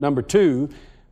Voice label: number two male; numbers and letters